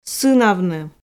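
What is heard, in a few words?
"сынавны", Komi, female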